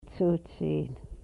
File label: mouth, Twana